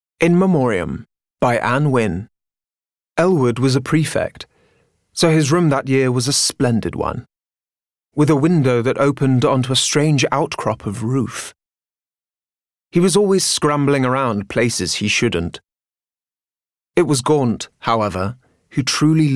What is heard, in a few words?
Audiobook English Accent; In Memorium